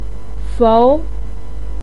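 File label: "fou", Mandarin pinyin, tone 2; 紑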